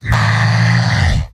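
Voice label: jeers, robot; heavy; mvm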